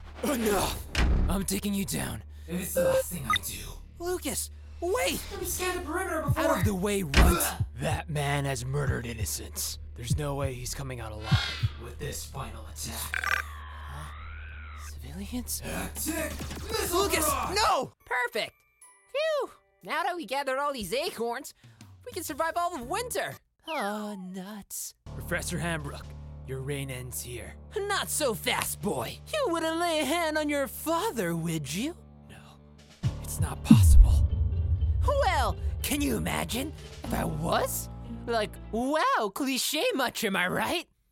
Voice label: cartoon character